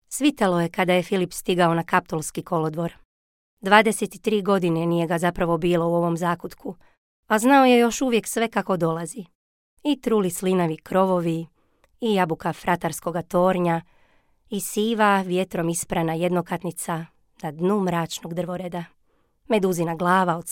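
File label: Croatian, Narrative; GoLocalise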